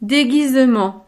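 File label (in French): "deguisement", French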